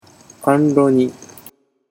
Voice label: "kanroni", Japanese